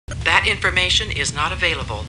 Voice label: Star Trek Computer Voice